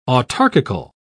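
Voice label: "autarkical", British English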